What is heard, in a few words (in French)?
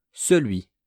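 "celui", French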